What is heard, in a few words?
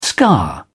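"scar", British English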